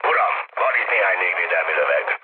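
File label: Hungarian damaged aa mood med, speech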